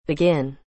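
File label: "begin", English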